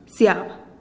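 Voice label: "siab", Teotitlán del Valle Zapotec; atole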